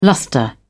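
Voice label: "lustre", British English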